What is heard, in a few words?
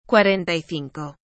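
"cuarenta y cinco", Spanish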